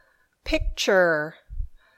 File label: "picture", English